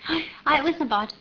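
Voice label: ingressive aye egressive aye it wasn a bad female; JIPA 2008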